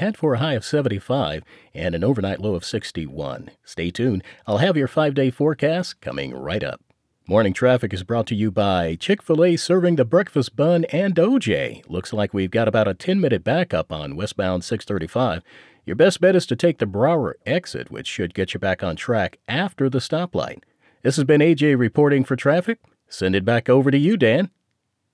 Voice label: Radio-TV